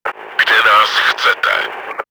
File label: combat reserve, cz; Kde nás chcete?; Czech; World of Tanks